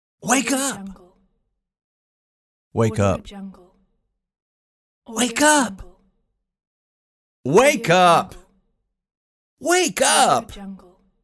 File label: male voice wake up